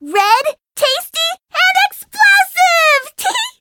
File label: eng menu tap; cherry; Cookie Run